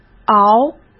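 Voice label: "熬", Mandarin Chinese; chinese flashcards; hsk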